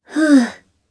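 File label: Vox Casting Japanese; Kara; kings raid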